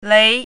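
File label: "lei2", Chinese pinyin, second tone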